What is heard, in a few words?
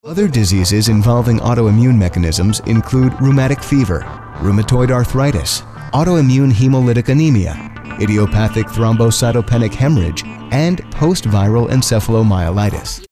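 American narration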